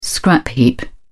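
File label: "scrap heap", British English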